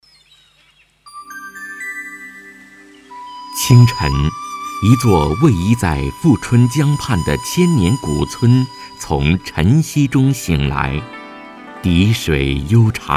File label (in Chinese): 专题片; 千年古村